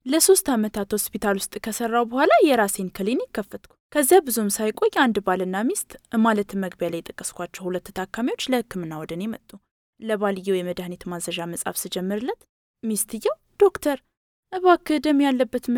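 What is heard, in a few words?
Amharic, female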